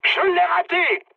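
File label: bomb failure, mood high, French; War Thunder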